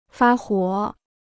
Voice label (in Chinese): "发火", Chinese